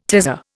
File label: "tazza", Indian